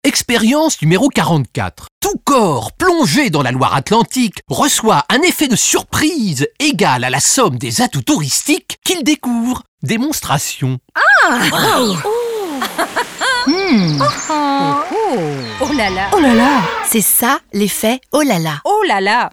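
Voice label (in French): spot, spots radio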